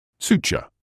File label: "suture", English